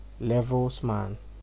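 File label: "levels man", Nigerian Pidgin, male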